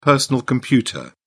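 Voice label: "personal computer", British English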